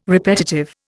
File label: "repetitive", Indian